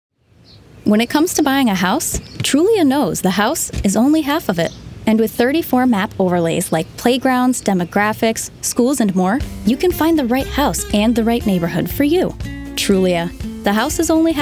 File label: Voice Actor Commercial